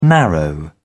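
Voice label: "narrow", British English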